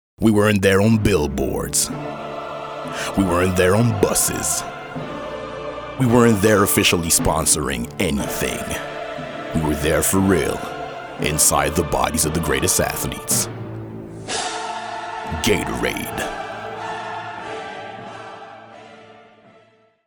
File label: English Voice